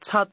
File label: "seven", cantonese